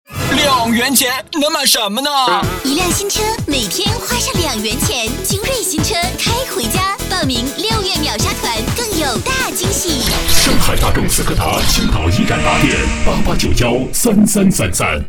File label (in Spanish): Locución mixto voces chino